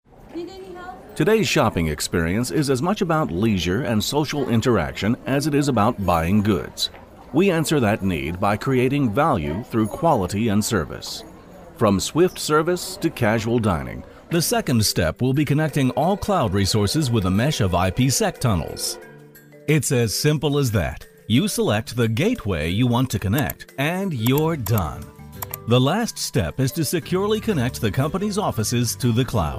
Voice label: american corporate narration, Professional and Rich American Voiceover